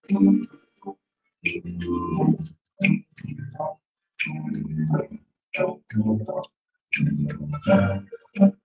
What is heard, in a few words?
voice message